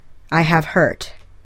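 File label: "I have hurt", English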